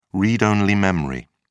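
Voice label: "read-only memory", British English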